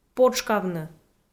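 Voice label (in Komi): "потшкавны" Komi, female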